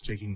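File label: "taking", Irish English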